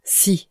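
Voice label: "scie", French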